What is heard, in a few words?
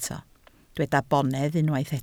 "bonedd", Welsh